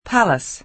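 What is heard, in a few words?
"palace", American English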